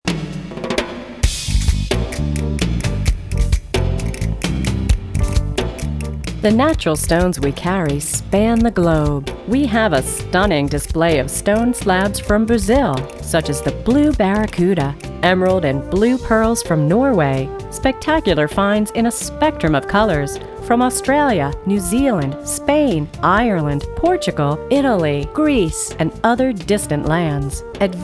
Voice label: MESSAGES ON-HOLD